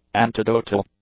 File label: "antidotal", English; adjectives